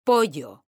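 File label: "pollo", Spanish, female; mekanta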